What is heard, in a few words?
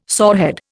"sorehead", Indian